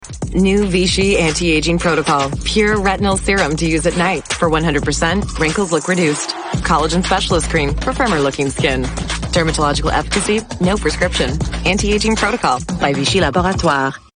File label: female english canadian neutral north american accent commercial; vichy